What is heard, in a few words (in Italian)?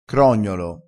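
"crognolo", dialettale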